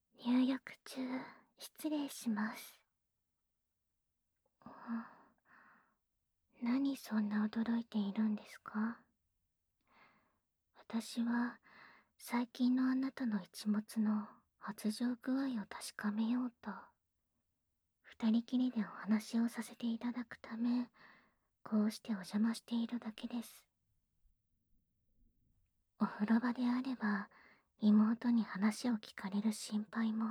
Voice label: ASMR; 姉・しずくのお風呂で媚び媚びフェラチオ♪; 居候先の仲良しJK姉妹を騙して媚び媚び性処理させまくる話～妹には手を出さない!という約束で姉に抜いてもらう裏で、姉には手を出さない!という約束で妹に抜いてもらう～